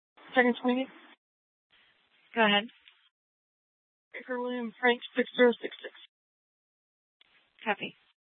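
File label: police dispatch radio, scanner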